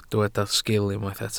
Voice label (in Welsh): "sgil", Welsh